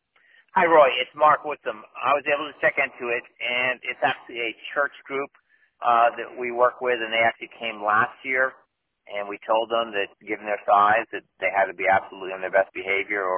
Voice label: voicemail